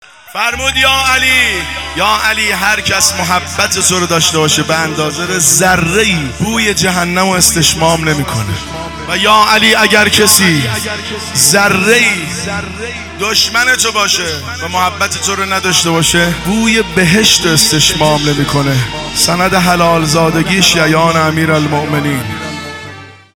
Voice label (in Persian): سخنرانی; سند حلال زادگی عشق علی